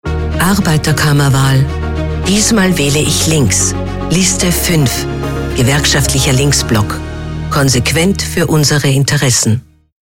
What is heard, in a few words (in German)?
Radiospot